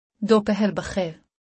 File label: "Good afternoon", Urdu; Basic Greetings and Courtesy